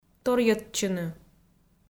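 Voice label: "торйӧдчыны", Komi, female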